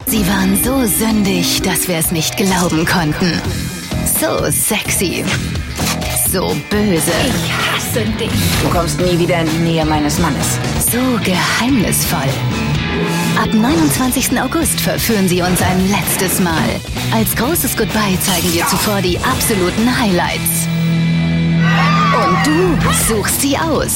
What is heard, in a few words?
Trailer Voice; Desperate Houswives Goodbye; Pro7